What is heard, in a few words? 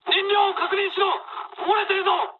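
Japanese tank damaged mood high; War Thunder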